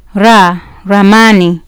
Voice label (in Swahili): "ramani", Swahili